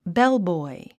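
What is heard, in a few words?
"bellboy", English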